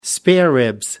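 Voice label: "spareribs", British English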